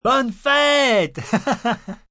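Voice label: Bday Laugh French M, male, French; Holiday Sound Effects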